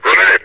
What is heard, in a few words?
"grenade", metropolice; hl2; Source engine